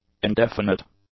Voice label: "indefinite", English; adjectives